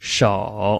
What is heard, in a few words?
"shou3", Chinese